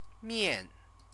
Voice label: "mian", Chinese; CTCFL; University of Oxford